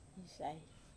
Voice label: "sai", Wamesa